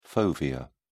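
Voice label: "fovea", English, British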